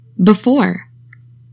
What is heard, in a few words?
"BEFORE", english; 3000 words